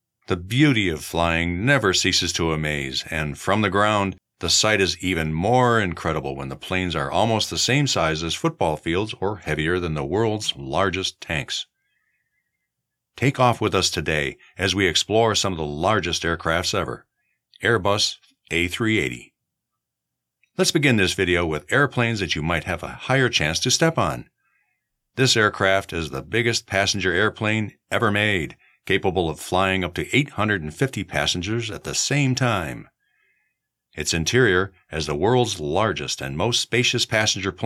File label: Commercial; Airbus